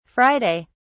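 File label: "friday", British English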